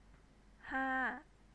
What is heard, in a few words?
"haa", Thai